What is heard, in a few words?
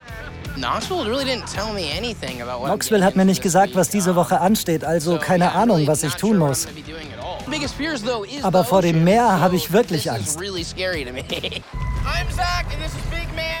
Voice Over